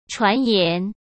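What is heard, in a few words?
"传言" Mandarin Chinese; hsk; chinese-flashcards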